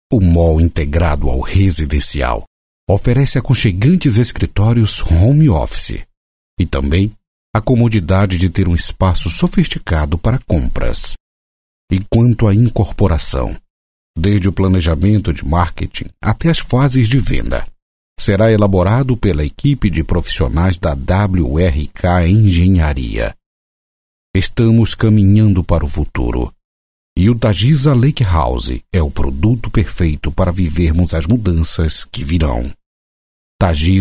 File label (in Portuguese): locucao institucional vt; Soluçõees Em Audio Produtora